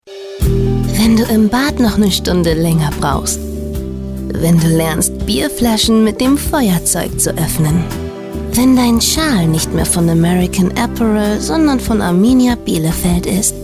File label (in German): Werbung